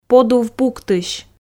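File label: "подув пуктысь", Komi, female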